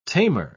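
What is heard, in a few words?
"tamer", British English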